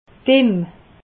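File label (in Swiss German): "imm", Alsatian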